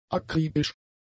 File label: "akribisch", german; adjectives